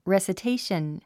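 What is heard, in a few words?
"recitation", English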